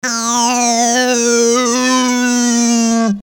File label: funny voice